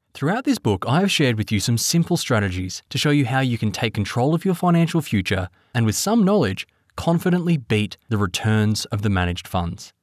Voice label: Audiobook narration